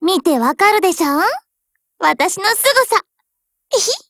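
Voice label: DIALOGUE, Japanese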